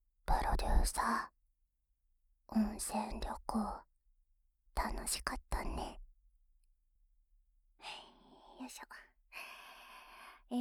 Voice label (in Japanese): フリートーク